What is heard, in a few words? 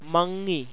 velar nasal, malayalam